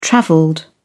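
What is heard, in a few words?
"travelled", British English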